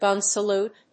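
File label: "gun salute", English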